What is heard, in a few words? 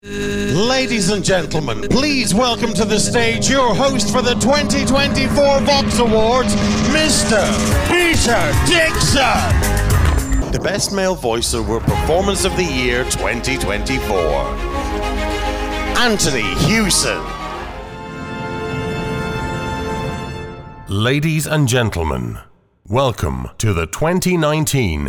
english scottish announcements